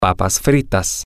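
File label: french fries, Spanish, male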